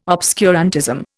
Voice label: "obscurantism", Indian